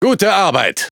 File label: Speech Quick Commands Good Game, DE; World Of Warships